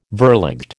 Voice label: "verligte", American

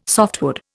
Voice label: "softwood", Indian

